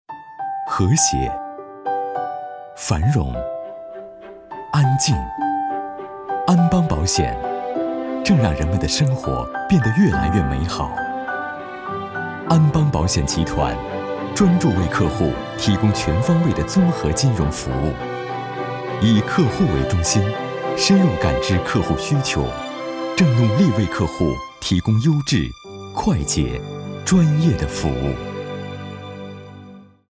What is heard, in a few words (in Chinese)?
男 年轻温暖声音; 安邦保险